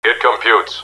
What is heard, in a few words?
it computes, robot; robot b9